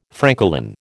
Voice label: "francolin", American